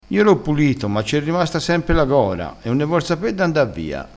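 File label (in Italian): "gora", crespinese dialettale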